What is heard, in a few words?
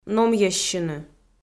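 "номйӧссьыны", Komi, female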